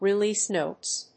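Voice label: "release notes", English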